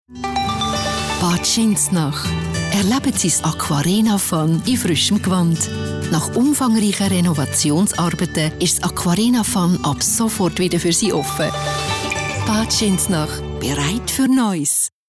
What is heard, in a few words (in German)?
Werbung CH AG